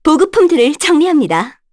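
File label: Victory, Korean; voices; heroes; Veronica; kings raid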